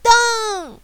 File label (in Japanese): "ドーンッ", 攻撃